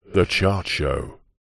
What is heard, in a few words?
radio prod male voice says the chart show processed